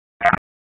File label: Police Northwest District Tac 1; Raleigh; wake